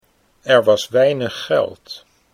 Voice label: er was weinig geld, Dutch